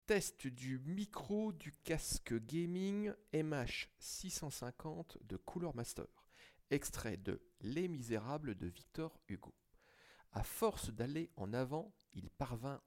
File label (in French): Test Micro